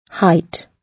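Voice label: "height", British English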